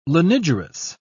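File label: "lanigerous", British English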